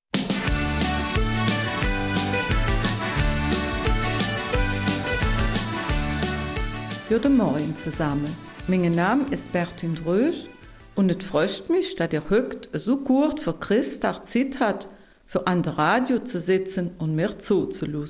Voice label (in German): Eifeler Mundartsendung; vor Weihnachten